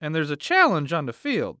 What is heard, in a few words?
Sports Human Voice Male Young Man Football Announcer